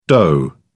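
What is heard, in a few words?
"doh", British English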